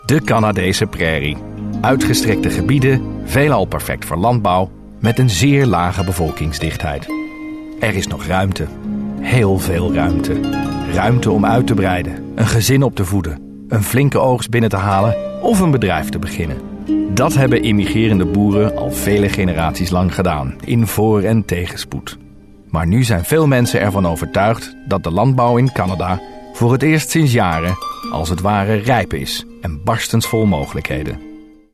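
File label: Dutch male; Dutch, European, Male, Home Studio, 20s-30s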